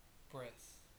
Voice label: "breath", final